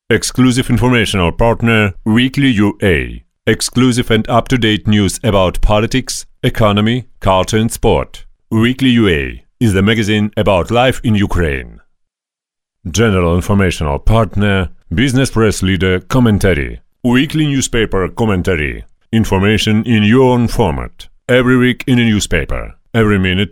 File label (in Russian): anglijskij